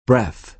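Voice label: "breath", American English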